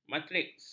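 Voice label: "matriks", Malay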